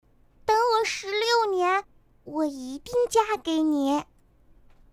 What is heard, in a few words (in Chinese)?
女 女童